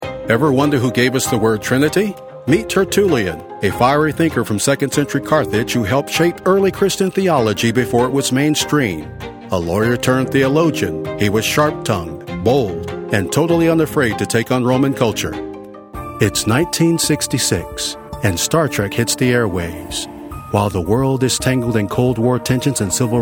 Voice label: Narration